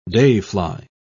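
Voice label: "dayfly", English, British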